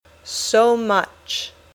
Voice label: "so much", English